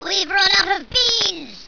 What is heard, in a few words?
weve run out of beans, death squeaky